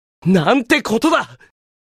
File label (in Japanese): エモーション（怒る）; なんてことだ！